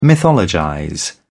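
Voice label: "mythologize", British English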